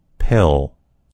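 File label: "pill", American English; gcompris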